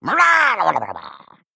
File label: Male Murloc Play